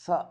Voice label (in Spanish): "boda", Zapoteco Magdalena Ocotlan; Sustantivos; INALI; Haspelmath